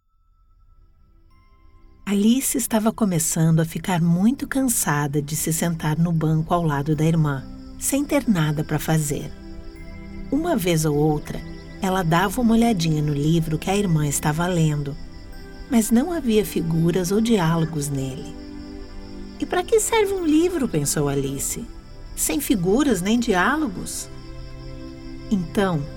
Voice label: portuguese brazilian audiobooks